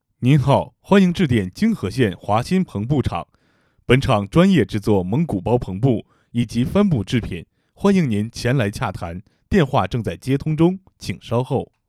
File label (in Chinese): 企业彩铃